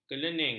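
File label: "kelening", Malay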